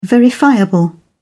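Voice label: "verifiable", British English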